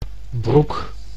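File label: "broek", Dutch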